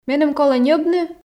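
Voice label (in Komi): меным колӧ ньӧбны, Komi, female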